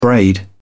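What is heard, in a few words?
"braid", English, British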